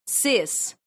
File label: "ses", esperanto